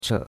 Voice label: "che3", chinois; Cours de Chinois

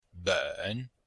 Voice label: "ben3", Chinese

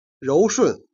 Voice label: "jian", Chinese

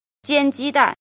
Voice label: "jin", Chinese

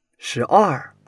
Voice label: "shi2 er4", Chinese

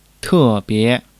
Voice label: "te4 bie2", Chinese

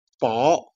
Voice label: "jian", Chinese

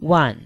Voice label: "wan4", Chinese

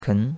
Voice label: "ken2", chinois; Cours de Chinois

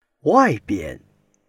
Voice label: "wai4 bian", Chinese

